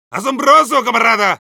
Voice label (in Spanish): Medic good job, es